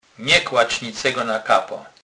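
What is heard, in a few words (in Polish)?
"kapa", Kurpie dialect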